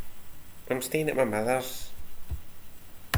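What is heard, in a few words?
"stayin", slang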